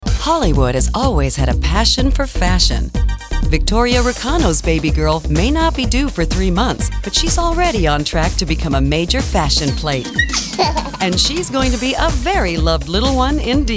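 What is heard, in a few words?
female narration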